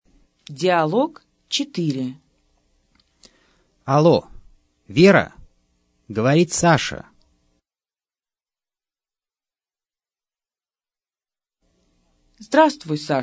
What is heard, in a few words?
dialog, russian